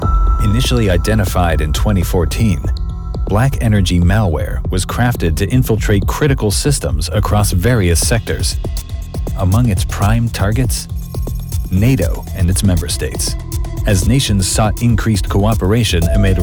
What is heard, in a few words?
Documentary Narration Cybercrime